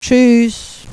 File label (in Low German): "tschuess", platt